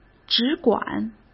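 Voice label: "只管", Mandarin; chinese-flashcards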